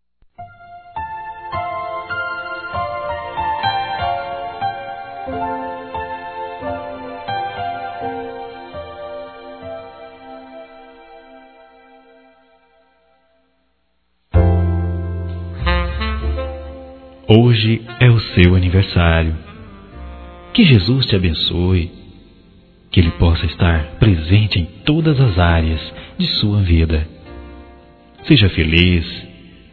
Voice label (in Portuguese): Neutro Católico masculino